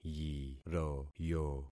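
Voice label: "yiroyo", concatenated syllables; Affixing experiment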